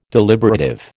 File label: "deliberative", American